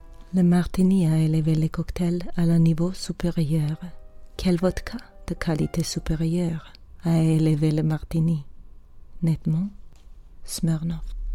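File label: Serbian, French accent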